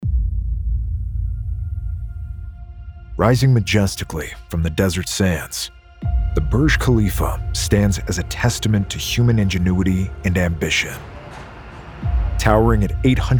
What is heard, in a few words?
Documentary; Demo Reel